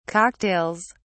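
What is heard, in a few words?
"cocktails", English, British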